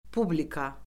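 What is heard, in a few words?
"publika", Azerbaijani; Orfoqrafiya Lüğəti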